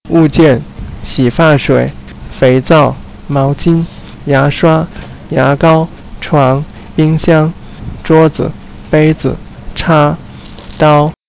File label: chinese